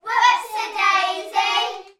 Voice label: human group children x6 uk aged 3 to 8 shout opps a daisy